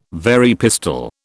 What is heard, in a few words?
"Very pistol", British